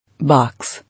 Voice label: "Box", English